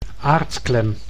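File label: "aardklem", Dutch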